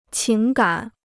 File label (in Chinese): "情感", Chinese